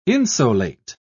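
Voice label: "insolate", British English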